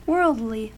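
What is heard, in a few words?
"worldly", US English; pronunciations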